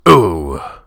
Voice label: PAIN; DN3D; Duke Nukem 3D